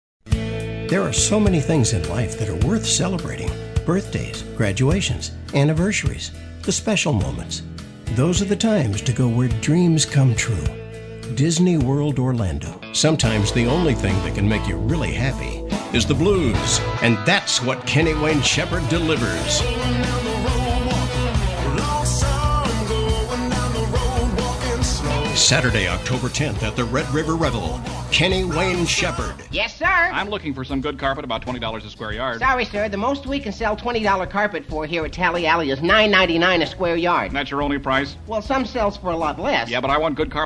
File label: Commercial Voiceover